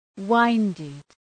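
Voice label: "winded", English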